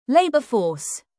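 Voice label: "labour force" British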